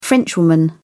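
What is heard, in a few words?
"frenchwoman", British English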